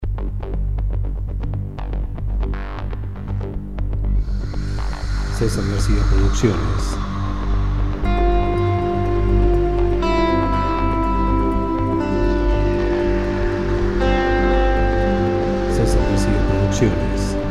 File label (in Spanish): voz off; EXPRESO A LO DESCONOCIDO